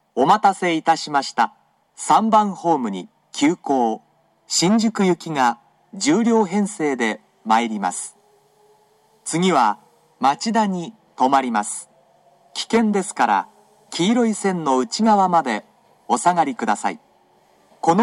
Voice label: express shinjuku next machida pass, station; sagami-ono